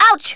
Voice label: ouch, Speech, Thespian; Worms Armageddon